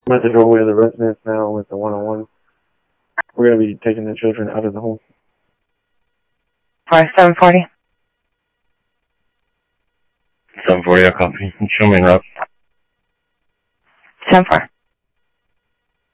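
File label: Sheriff East County, scanner audio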